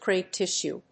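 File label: "crepe tissue", English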